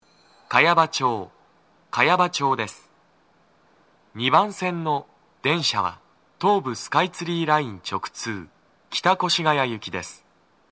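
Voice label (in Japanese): 茅場町駅, 2番線 到着放送, 男声, TOA天井型での収録です; 放送は「北越谷行」です。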